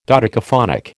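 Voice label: "dodecaphonic", American English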